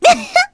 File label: vox get, kr; Cleo; kingsraid